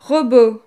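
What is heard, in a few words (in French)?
"robot", French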